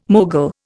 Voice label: "mogul", Indian